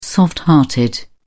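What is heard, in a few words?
"soft hearted", British English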